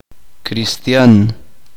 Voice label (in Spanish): uspanteko personas